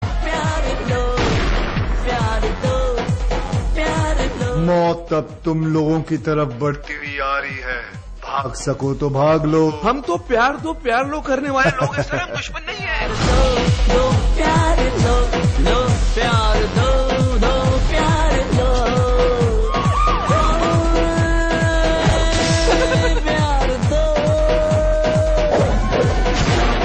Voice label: Thank U Dialog